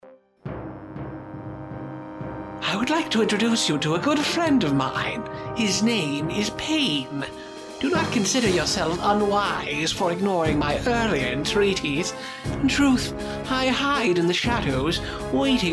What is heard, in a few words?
Sinister; Sinister and Evil